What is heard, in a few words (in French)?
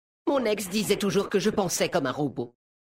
Homme Blague Ex; Mécagnome